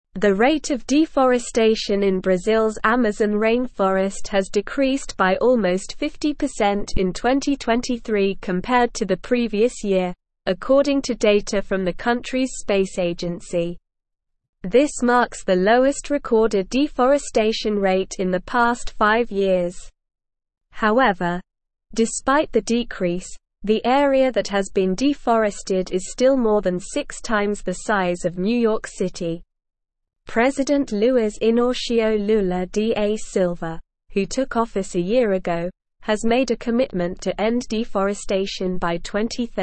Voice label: English Advanced SLOW Reading; Brazils Amazon Deforestation Rate Drops by 50; English Newsroom

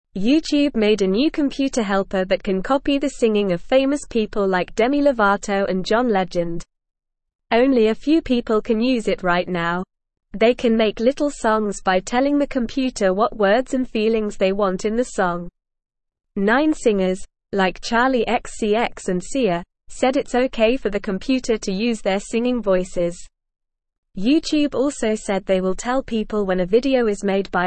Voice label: English Beginner NORMAL Reading; English Newsroom; YouTubes Dream Track Sing Like Famous People

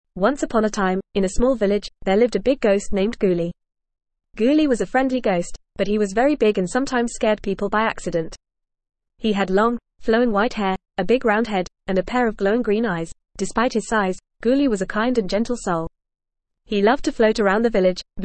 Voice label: ESL Short Stories for Kids FAST reading; The Big Ghost

